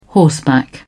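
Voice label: "horseback", British English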